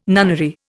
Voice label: "nunnery", Indian

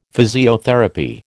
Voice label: "physiotherapy", American

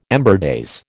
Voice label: "ember days", American

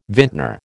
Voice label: "vintner", American

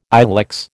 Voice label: "ilex", American

